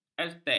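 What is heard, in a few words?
"aztec", Malay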